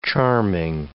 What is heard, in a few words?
"charming", English